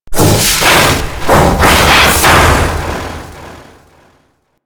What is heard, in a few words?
Golem Boss Speak Game Taunt; Warframe; Taunts